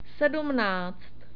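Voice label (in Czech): "sedmnáct", Czech; numbers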